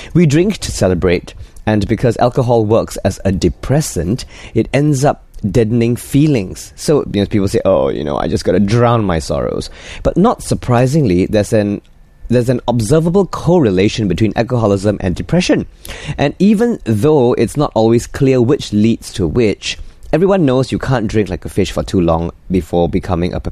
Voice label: Asian English radio show sample

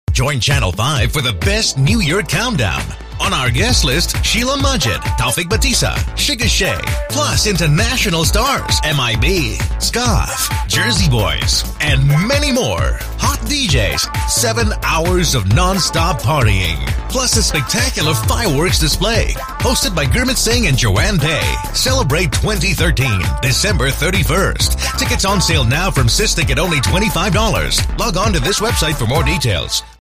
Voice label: Asian English promo read

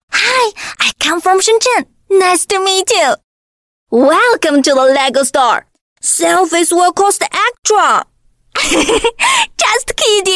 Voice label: Mandarin China Character Voices

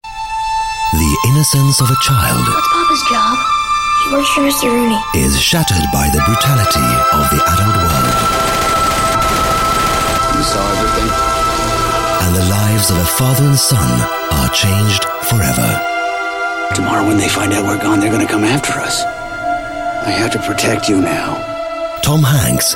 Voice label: Swedish English, Male; Road To Perdition

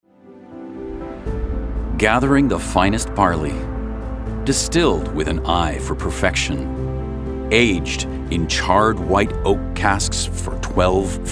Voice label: US English Whisky

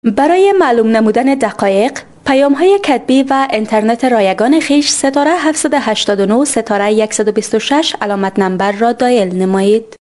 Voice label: Dari Female

